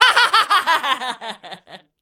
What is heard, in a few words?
Female Taunt, Cannibal, dialogue